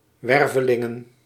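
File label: "wervelingen", Dutch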